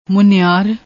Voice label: "muneaar", Punjabi